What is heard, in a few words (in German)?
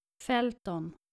"felton", nordische jungennamen